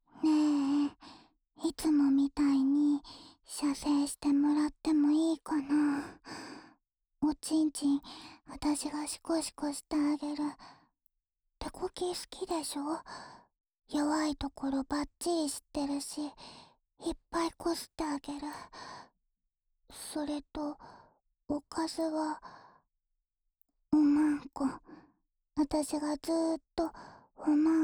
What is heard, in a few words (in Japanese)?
ボイスループ（右耳）, ASMR; オナサポ用おま○こボイスループ